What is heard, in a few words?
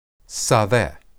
"sadeh", Hebrew